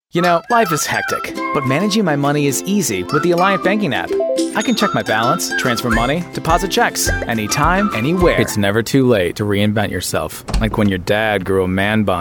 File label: commercial